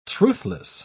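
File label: "truthless", British English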